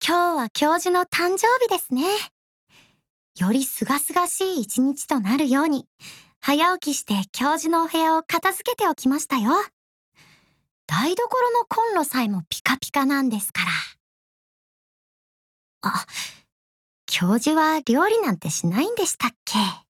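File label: PLAYERBIRTHDAY, Japanese; jessie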